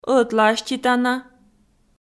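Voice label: "ӧтлааститана", Komi, female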